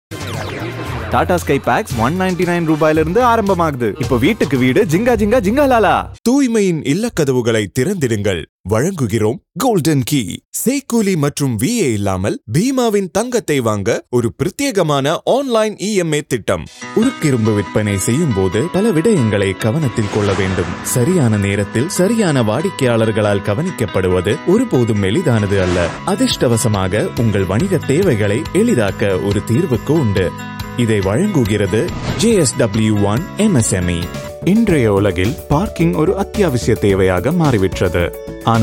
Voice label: Tamil Indian Reel

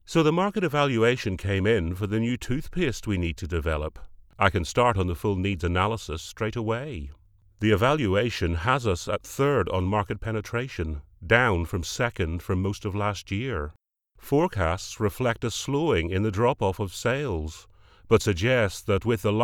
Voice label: Explainer Conversational

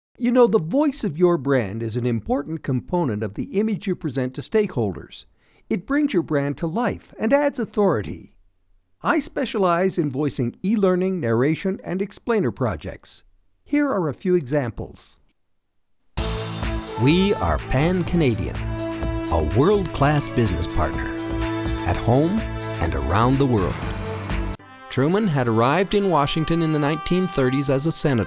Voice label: Presentation

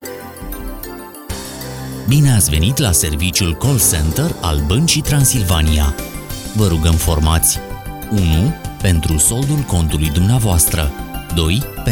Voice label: On Hold

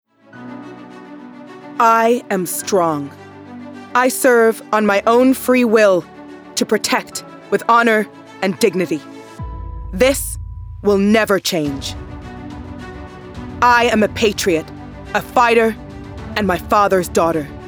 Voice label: Character American Accent

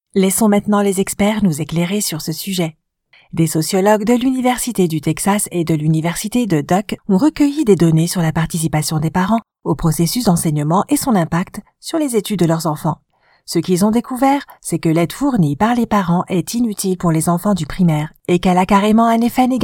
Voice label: narration elearning

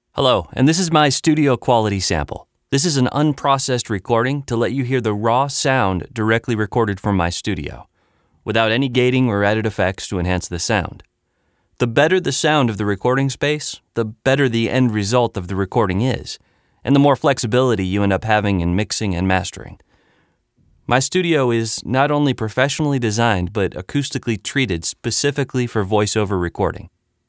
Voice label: Studio Quality Sample

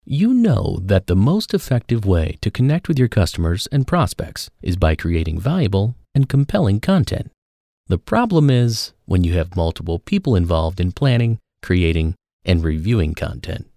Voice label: explainer video

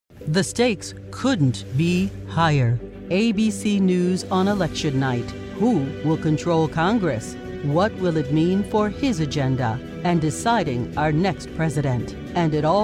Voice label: Political Promo

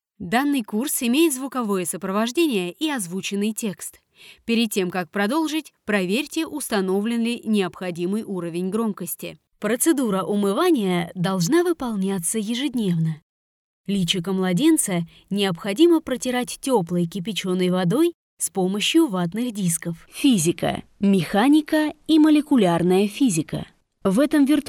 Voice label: ELearning

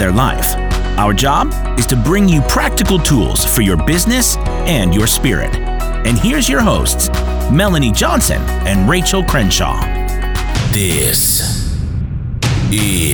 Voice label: Podcast Intro and Outro

